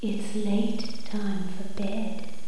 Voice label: "LATE", FEMALE